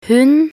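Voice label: "hund", Norwegian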